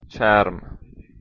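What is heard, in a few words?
"charm", Persian, male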